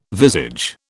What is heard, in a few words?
"visage", British